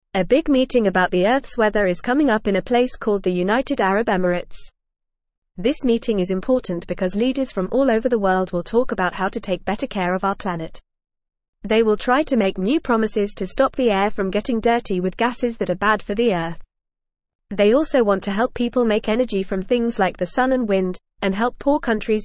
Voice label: English Lower Intermediate FAST Reading; Big Meeting to Help Earths Weather in UAE